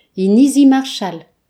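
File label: "marshall islands", Breton; gcompris; geography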